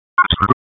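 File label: Police Southwest District Tac, radio; Raleigh; Tac 1; p25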